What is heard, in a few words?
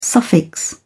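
"suffix", British English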